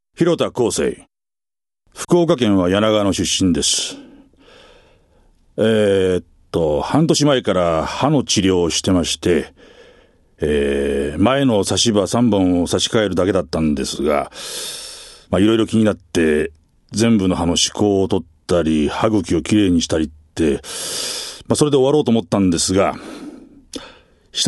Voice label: freetalk, male voice